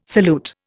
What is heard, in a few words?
"salute", Indian